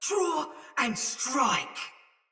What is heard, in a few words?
Attack Heavy Soldier Mace Agent Female; Battle; Sinecure Melee